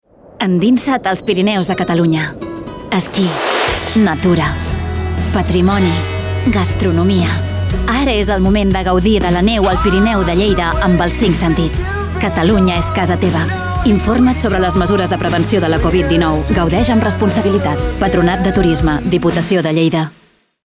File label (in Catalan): falca radio, CAT; TURISME; LLEIDA